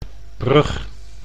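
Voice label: "brug", Dutch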